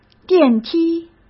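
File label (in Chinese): "电梯", Mandarin Chinese; hsk; chinese-flashcards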